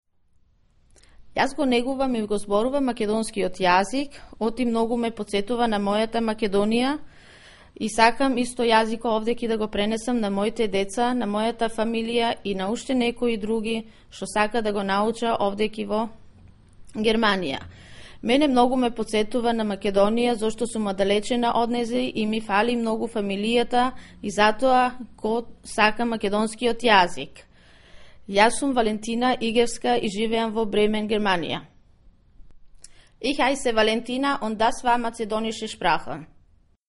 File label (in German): Mazedonisch